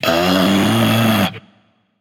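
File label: "seriously", male; smite; voicepack; olorun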